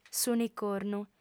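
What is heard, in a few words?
"s'unicornu", lingua sarda, female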